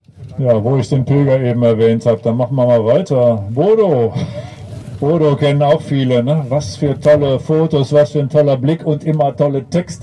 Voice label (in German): kommentar; rote-linie-am-hambacher-wald; mutbuergerdokus; Mutbürgerdokus